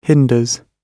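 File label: "hinders", British English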